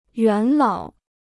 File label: "元老", Chinese